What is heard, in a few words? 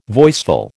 "voiceful", American